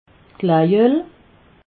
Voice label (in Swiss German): "glajoel", alsace